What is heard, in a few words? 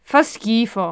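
disgusting, phrases, italian; Aristosoft, Inc.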